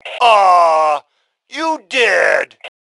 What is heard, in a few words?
Taunt Aww, Male; T2 Male 05